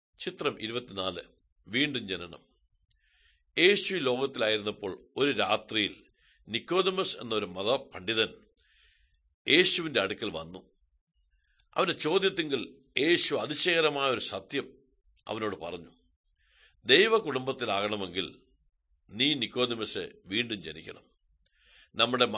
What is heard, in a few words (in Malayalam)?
good news malayalam, drama